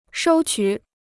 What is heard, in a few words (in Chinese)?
"收取", Chinese